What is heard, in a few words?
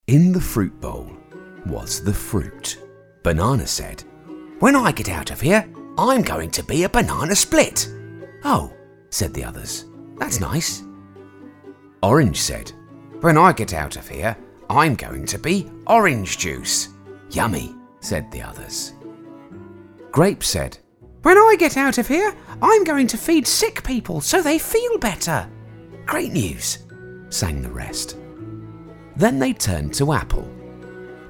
Childrens Story